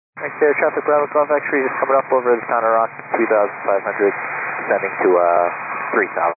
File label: 122 925MHz, scanner radio